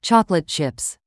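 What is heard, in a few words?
"chocolate chips", English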